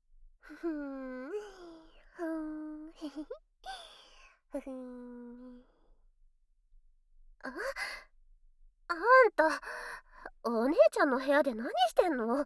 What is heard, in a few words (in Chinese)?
傲嬌的舔耳, ASMR; 妹妹・香澟; 手淫